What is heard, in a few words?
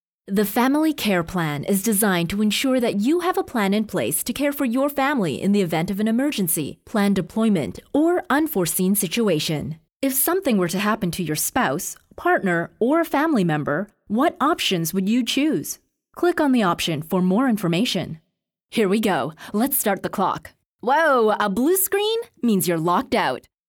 Educational E Learning VO; voice-over